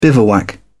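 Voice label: "bivouac", British English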